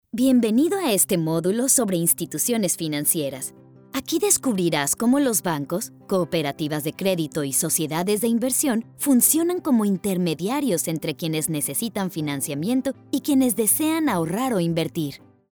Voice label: Spanish Voice Over, Serious Topics - E-learning Narration; Financial Institutions; Healthcare E-learning